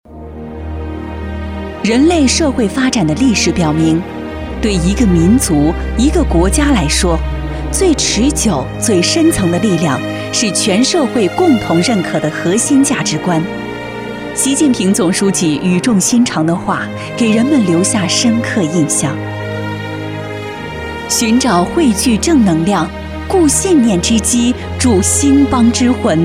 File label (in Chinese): 女国 专题 政府 大气; 改革在路上